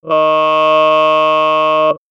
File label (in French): voix synthetique